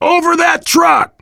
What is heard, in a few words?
directional, voice, biker; Left 4 Dead 2; left4dead2 dlc3; survivor